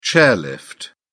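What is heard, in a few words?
"chairlift", British English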